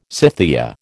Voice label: "Scythia", American